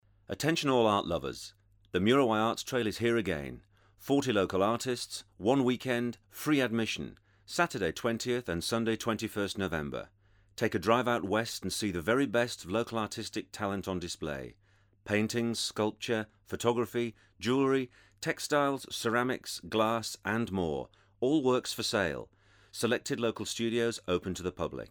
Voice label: British natural accent